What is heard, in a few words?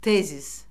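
"tezis", Azerbaijani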